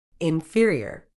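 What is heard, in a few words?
"inferior", British English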